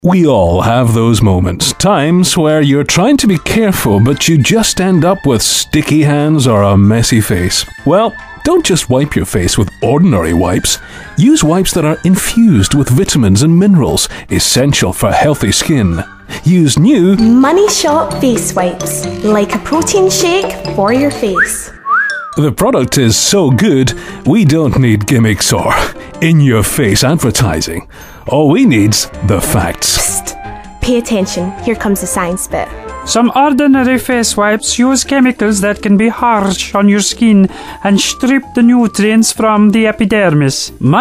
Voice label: Spoof Ad; Money Shot